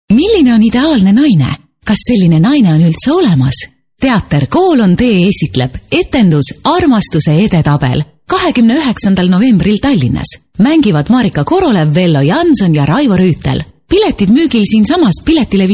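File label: estonian female commercial; voice demos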